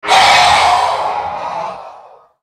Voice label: spell cast effort; CyberNocturne; lol; character voices - english; en US